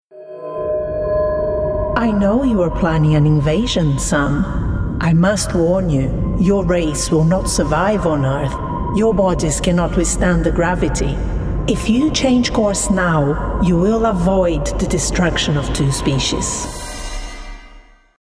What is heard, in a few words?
English international video games